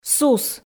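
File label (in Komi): "сус", Komi, female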